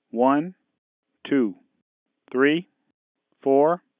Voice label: digits m clean; dereverb

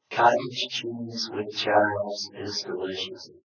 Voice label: speech m; dereverb

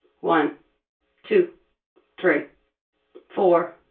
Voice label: digits reverb

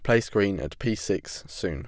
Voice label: male

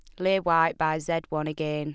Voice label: female

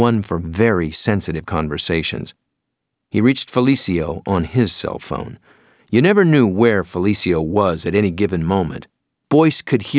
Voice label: clean, speech

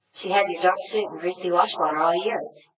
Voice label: speech f; dereverb

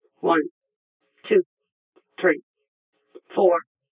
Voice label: digits f, dereverb

